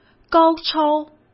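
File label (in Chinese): "高超", Mandarin Chinese; hsk; chinese-flashcards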